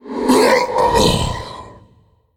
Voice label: male faceless death